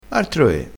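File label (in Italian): "artro e", dialettale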